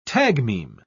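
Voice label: "tagmeme", British English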